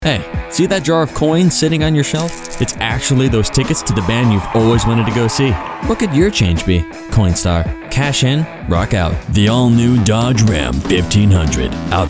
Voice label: commercial